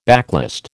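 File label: "backlist", American English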